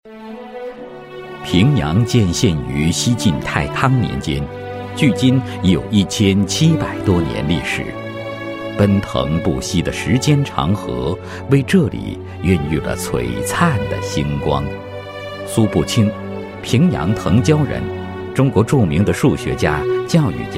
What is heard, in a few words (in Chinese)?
男 地区文化宣传; 平阳文化欣赏